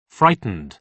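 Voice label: "frightened", British English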